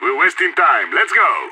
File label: radio lets go